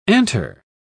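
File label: "antre", British English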